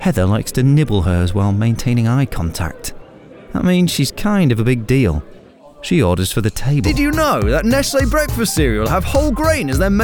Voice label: Commerical